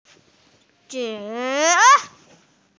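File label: "zhi3", Chinese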